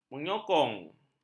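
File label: "menyokong", Malay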